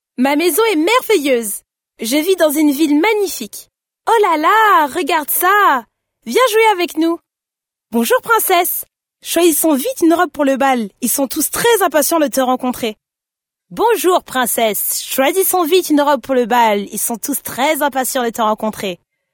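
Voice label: French female children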